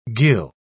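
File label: "ghyll", British English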